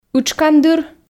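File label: "ытшкандыр", Komi, female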